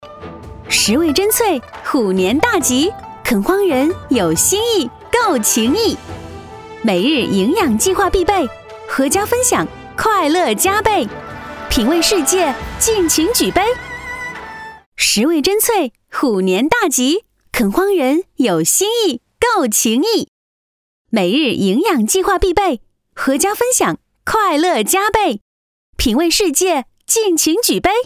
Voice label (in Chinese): 女 喜庆祝福; 垦荒人